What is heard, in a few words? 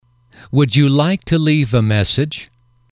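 would you like to leave a message, English, male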